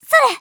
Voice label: attack, Voice Line, JPN; Eternal Return; Tia